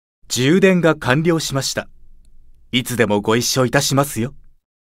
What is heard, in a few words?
notification voice; Barbatos